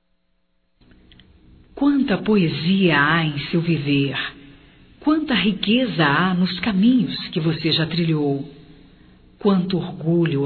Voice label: Aniversário Feminino, Telemensagens; Aniversarios; Telemensagens 2017

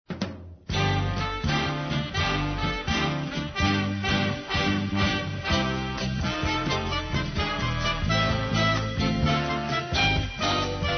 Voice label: Aniversário Neutro F; Aniversários; Aniversários diversos; fem

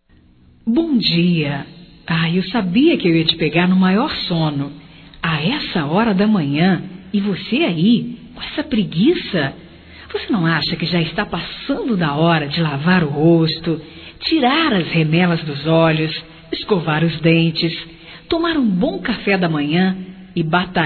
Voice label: Bom Dia Gozação Feminino; Bom dia, tarde e noite; Telemensagens 2017

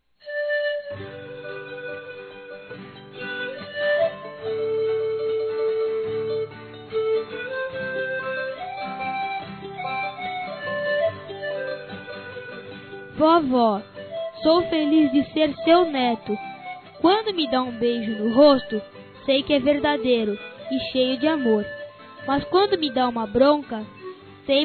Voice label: ANIV. AVÓ CRIANÇA M; Telemensagens 2017; Aniversários; Aniversário Infantil

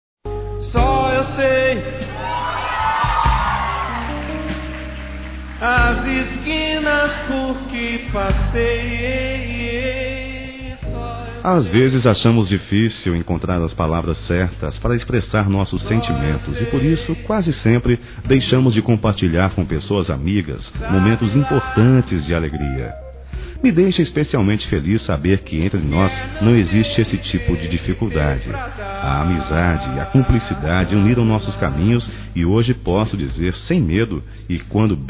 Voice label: Aniversário Comadre Masculino; Aniversários; Aniv Familiar

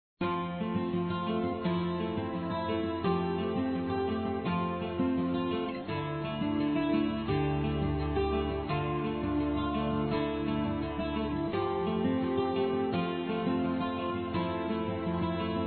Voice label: Neutro, fem; Telemensagens 2017

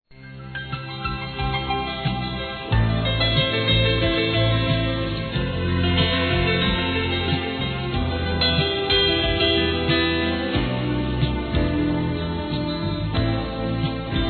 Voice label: aniversário para criança, Telemensagens, masc; Aniversários; Aniversários diversos

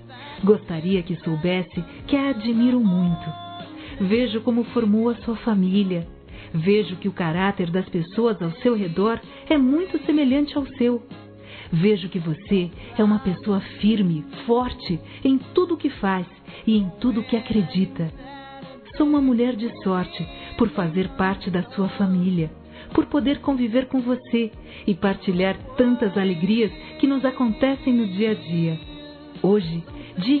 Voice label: SOGRA F, Telemensagens, Dia das Mães; Telemensagens 2017

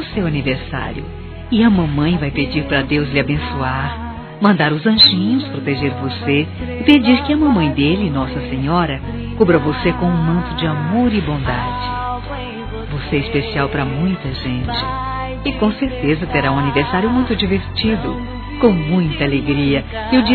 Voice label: FILHO CRIANÇA F; Aniversários; Aniversários diversos; fem

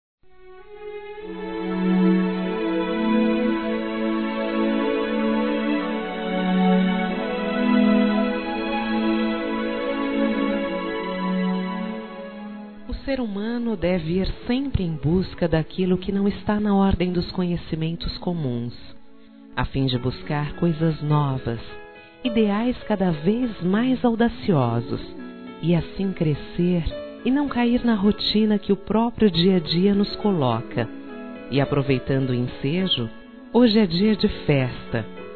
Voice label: NEUTRO (F)

